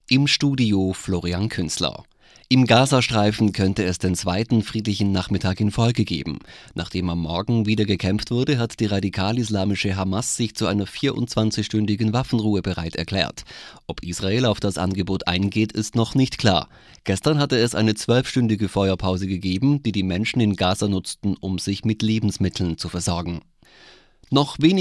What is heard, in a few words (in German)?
nachrichten